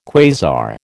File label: "quasar", American